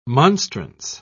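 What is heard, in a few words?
"monstrance", British English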